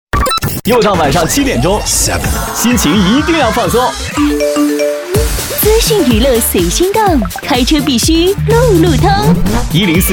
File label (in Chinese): 男 电台 嘻哈旗舰店片花